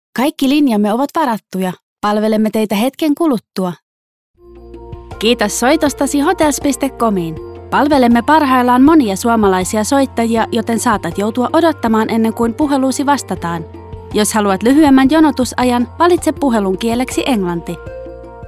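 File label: finnish interactive voice response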